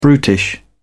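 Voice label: "brutish", English, British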